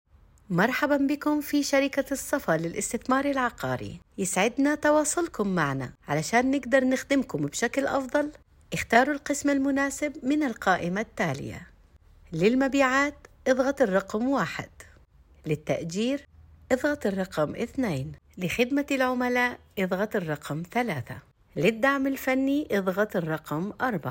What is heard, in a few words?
English; رد الي سعودي